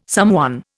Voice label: "someone", Indian